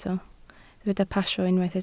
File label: "pasio", Welsh